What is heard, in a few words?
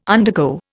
"undergo", Indian